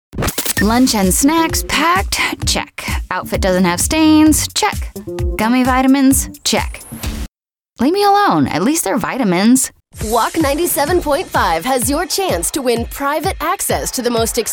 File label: radio imaging female